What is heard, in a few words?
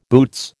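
"boots", American English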